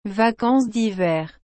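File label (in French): "vacances d'hiver", French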